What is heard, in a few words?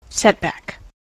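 "setback", US English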